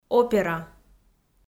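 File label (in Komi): "опера", Komi, female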